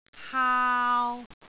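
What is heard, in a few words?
"haau3", Cantonese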